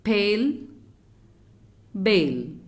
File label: "Pail" "bail"